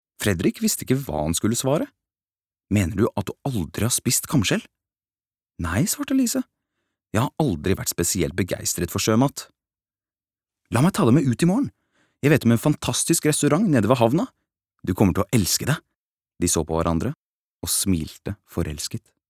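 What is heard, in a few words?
Norwegian audio book, mann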